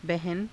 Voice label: "bahana", hindi